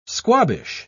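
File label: "squabbish", English, British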